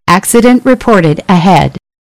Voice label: Approach Accident, eng; waze

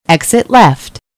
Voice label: Exit Left, eng; waze

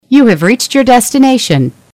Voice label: Arrive, eng; waze

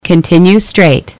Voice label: "Straight", eng; waze

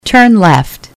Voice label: "Turn Left", eng; waze

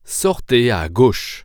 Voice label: Exit Left, french; waze

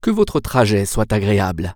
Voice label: Start Drive, french; waze

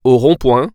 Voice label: roundabout, french; waze

